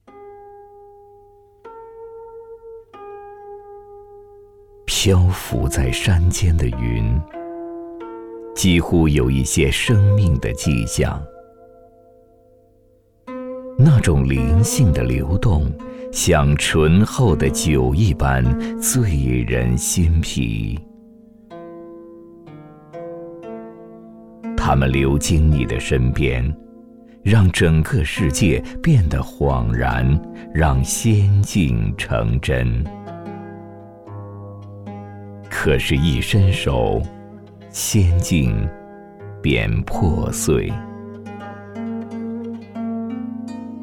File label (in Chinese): 纪录片 自然科教; 三清山; 国家地理三清山